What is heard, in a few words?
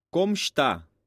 conversation